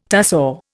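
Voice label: "tussore", Indian English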